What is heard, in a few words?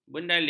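"bendalir", Malay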